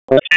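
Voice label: Wake County EMS Dispatch, p25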